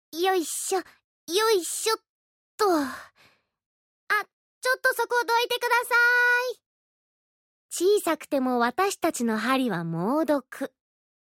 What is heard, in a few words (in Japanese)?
アニメ